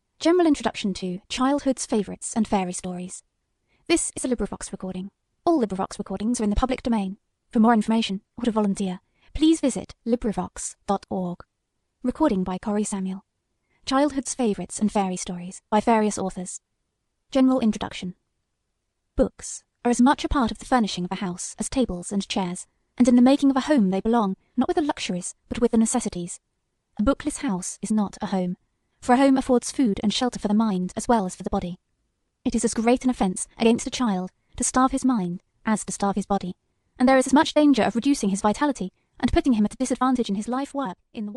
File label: sample female